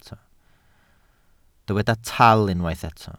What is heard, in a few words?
"tal", welsh